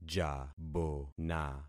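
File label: "jabona", concatenated syllables; Affixing experiment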